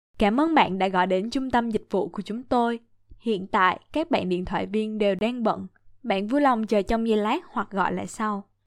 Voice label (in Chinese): 越南语女, 外语, 小语种